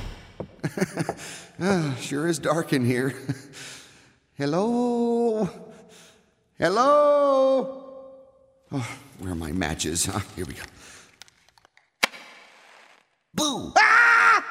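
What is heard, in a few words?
On Hold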